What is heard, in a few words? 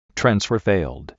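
transfer failed, male; smartftp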